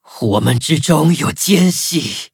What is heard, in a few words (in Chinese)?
defeat, zh-hant; cookie run